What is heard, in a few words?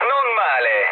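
Italian trick mood low, speech; War Thunder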